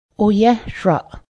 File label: blanket, Cayuga